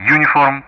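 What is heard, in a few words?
Speech Sound Russian; DCS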